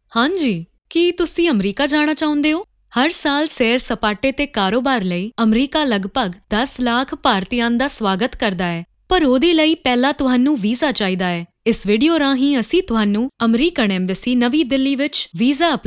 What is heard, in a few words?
Punjabi VO